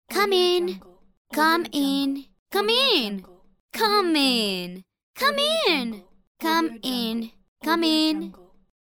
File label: teenage girl saying come in pack